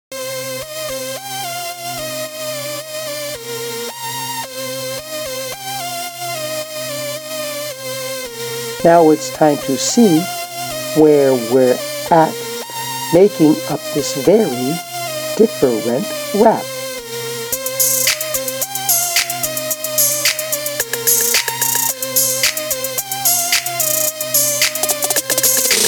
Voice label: rap sample